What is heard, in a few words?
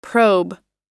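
"probe", American English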